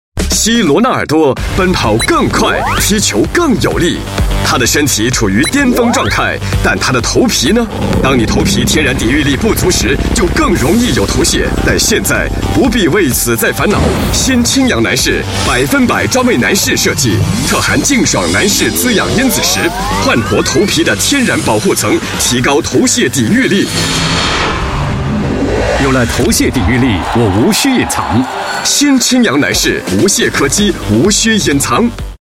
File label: Mandarin Clear; Checkmate